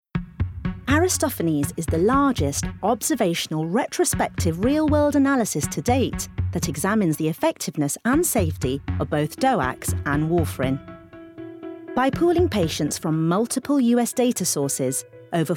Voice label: Corporate; Medical Corporate